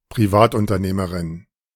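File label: German "Privatunternehmerin"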